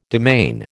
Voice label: "demesne", American